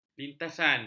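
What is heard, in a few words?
"lintasan", Malay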